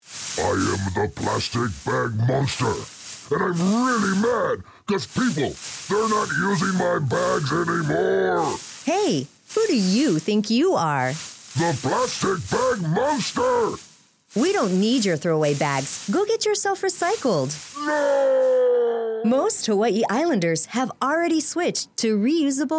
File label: Radio ad; Bag Monsters